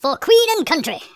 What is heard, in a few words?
"dragon punch", speech; Biggles